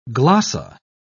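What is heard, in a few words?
"glossa", English, British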